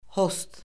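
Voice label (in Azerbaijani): "host", Azerbaijani; Orfoqrafiya Lüğəti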